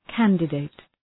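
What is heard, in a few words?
"candidate", English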